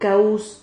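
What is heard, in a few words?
"caus", Spanish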